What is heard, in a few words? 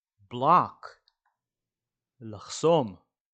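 "block" English, "לחסום" Hebrew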